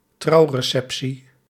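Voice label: "trouwreceptie", Dutch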